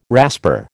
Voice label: "rasper", American

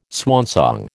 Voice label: "swansong", American

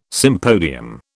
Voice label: "sympodium", British

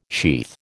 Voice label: "sheath", American

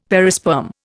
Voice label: "perisperm", Indian; Dictionary

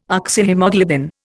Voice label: "Oxyhaemoglobin", Indian

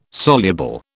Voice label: "soluble", British

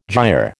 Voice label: "gyre", American

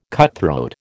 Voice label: "cutthroat", American

